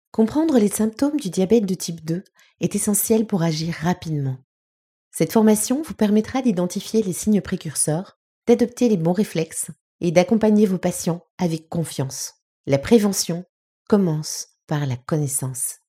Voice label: E-learning Medical FR